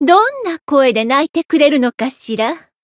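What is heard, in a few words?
variable chant, Japanese; sherinu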